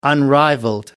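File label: "unrivaled", English, British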